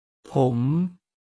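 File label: "phom", Thai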